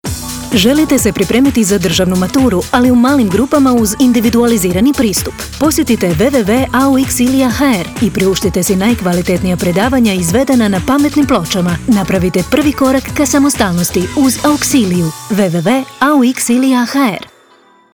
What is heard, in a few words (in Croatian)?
reklama, Antena Zagreb; Auxilia